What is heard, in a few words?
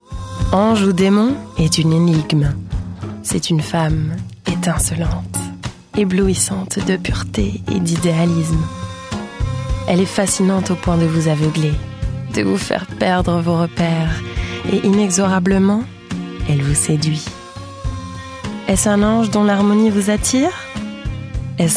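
French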